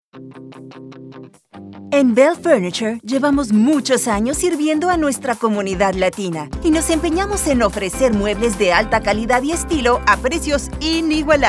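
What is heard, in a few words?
Unbeatable Prices Radio Spanish; BELF; UnbeataBEL Prices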